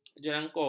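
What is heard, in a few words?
"jerangkung", Malay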